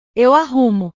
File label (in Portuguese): "eu arrumo", portugues